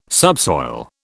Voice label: "subsoil", British

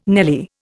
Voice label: "nelly", Indian

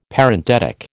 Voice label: "Parenthetic", American

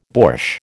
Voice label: "borsch", American

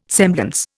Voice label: "semblance", Indian

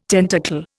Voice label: "tentacle", Indian

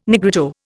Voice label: "Negrito", Indian

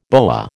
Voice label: "boa", American

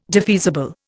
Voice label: "defeasible", Indian

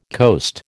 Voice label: "coast", American

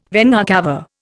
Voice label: "vena cava", Indian

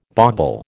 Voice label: "bauble", American

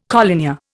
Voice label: "collinear", Indian

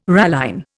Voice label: "ralline", Indian

